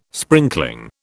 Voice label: "sprinkling", British

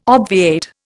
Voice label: "obviate", Indian

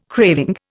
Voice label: "craving", Indian

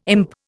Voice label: "imp", Indian

